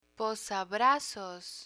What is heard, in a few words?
"posabrazos", Spanish; picture dictionary